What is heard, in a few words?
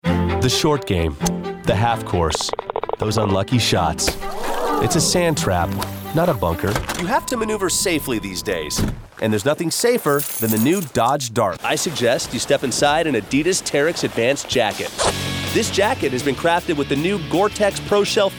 Commercial